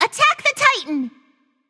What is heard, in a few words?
vox attack m; smite; scylla